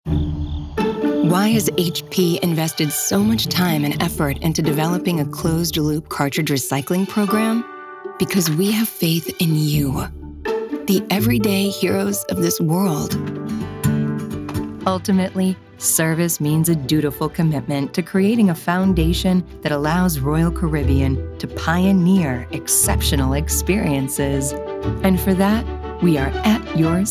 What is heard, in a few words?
women narration